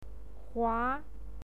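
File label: "hua", Mandarin Chinese pinyin, second tone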